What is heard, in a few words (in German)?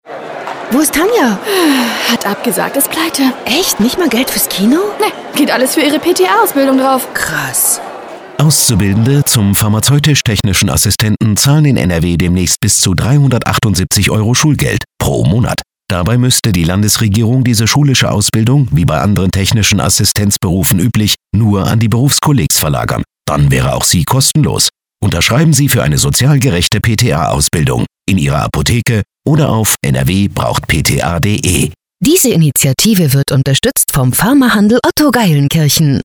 radiospot; nrw braucht pta